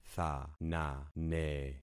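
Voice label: "thanane", concatenated syllables; Affixing experiment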